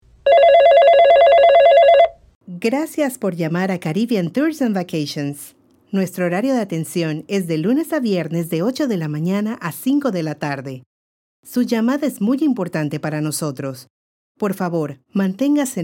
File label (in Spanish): phone